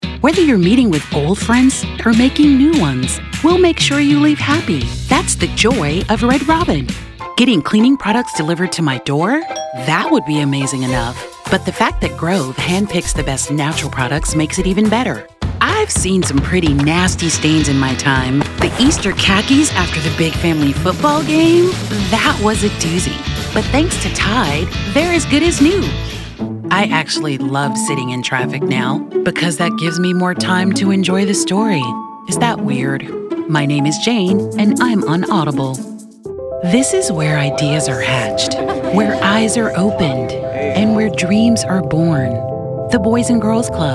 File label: commercial